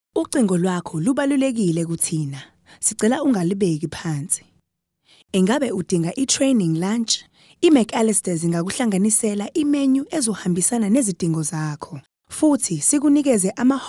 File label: Zulu Female